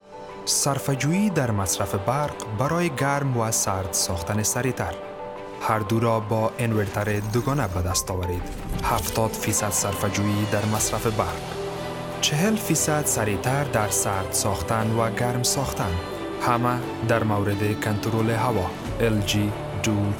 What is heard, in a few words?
Dari